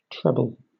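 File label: "treble", British English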